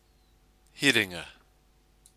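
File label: "hiringa", Maori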